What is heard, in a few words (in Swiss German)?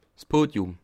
"podium", Alsace